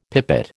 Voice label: "pipit", American